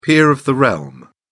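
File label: "peer of the realm", English, British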